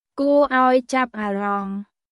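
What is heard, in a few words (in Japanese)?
クメール語（カンボジア語）の日常会話基本フレーズ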